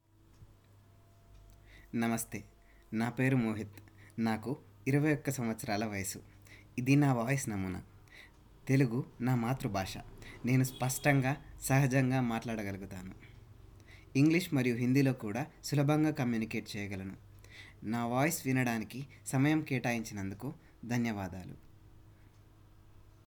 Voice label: Telugu Voice Sample